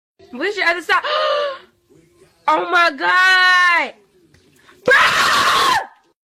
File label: Girl spills juice on carpet oh my god Bro